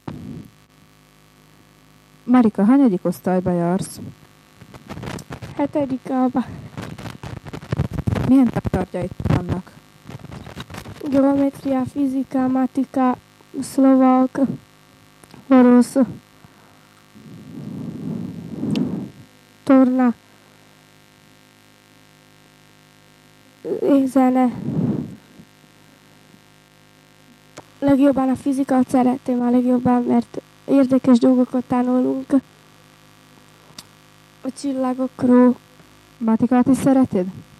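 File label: dialect collections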